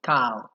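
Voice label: "cow", Nigerian Pidgin, male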